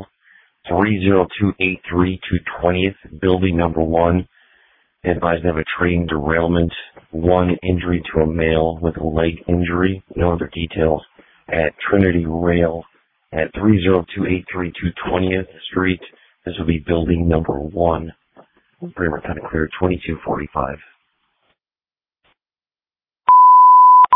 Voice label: Waverly Ambulance, dispatch